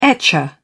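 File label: "etcher", British English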